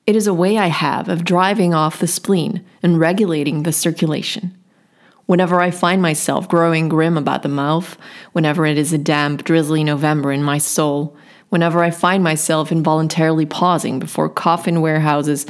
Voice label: US English